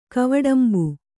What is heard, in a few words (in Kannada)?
"ಕವಡಂಬು", kannada